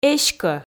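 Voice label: "эськӧ", Komi, female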